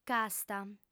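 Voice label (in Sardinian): "casta", female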